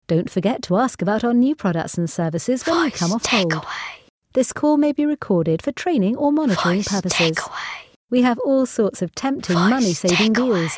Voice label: Start Ups Rich Female